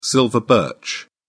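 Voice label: "silver birch", British English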